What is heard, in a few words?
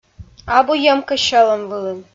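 "абу йӧмкӧ сьӧлӧм вылын", Komi, female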